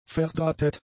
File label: "verdrahtet", German; adjectives